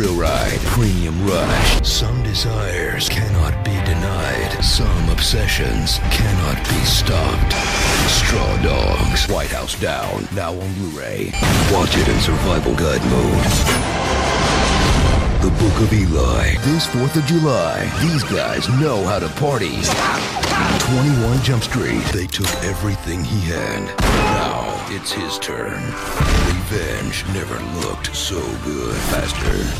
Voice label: Action Trailer